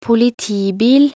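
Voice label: "politibil", Norwegian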